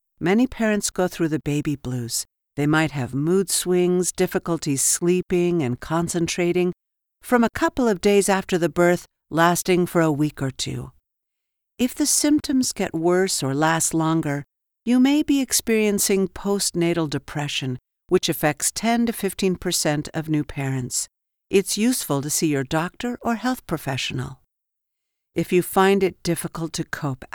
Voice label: eLearning, Narration; eLearning Course for Parents; Triple P for Baby